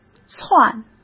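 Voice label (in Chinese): "窜", Mandarin Chinese; hsk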